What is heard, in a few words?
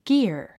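"gear", English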